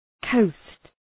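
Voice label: "coast", English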